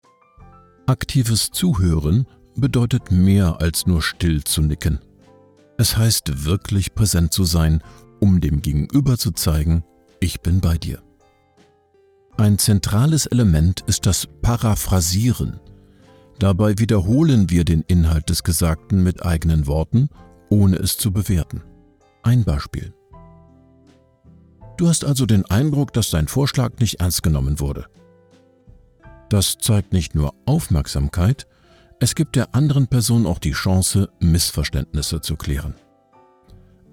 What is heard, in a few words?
elearning, sprecher; Professionell kommunizieren